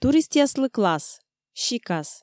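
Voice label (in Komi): туристъяслы класс, сикас, Komi, female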